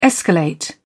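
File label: "escalate", British English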